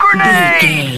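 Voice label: radio voice male soldier grenade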